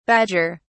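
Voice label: "badger(s)", British English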